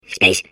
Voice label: babble; portal2